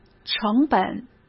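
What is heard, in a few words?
"成本", Mandarin Chinese; hsk; chinese-flashcards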